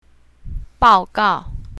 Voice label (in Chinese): "bao4gao4", mandarin